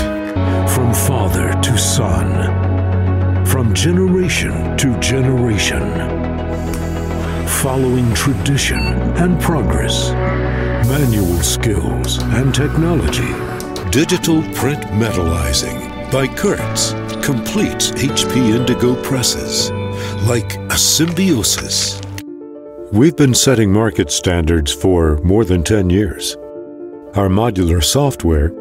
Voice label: American English Voiceover